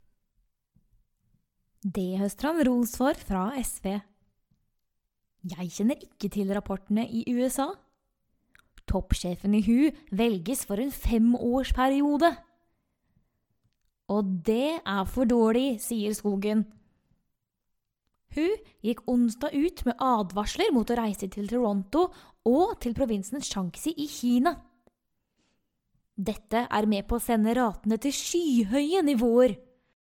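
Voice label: Norwegian General; GoLocalise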